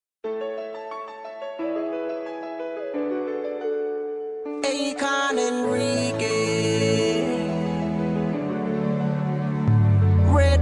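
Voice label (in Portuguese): birthday CHEFE female, telemensagem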